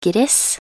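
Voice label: "gress", Norwegian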